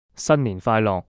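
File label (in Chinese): "新年快樂" Cantonese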